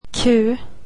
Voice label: swedish alphabet q